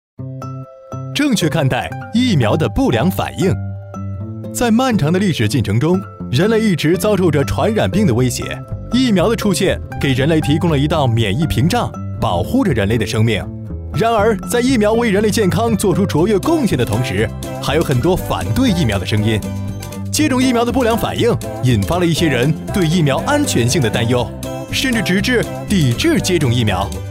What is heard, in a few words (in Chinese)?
疫苗的出现年轻时尚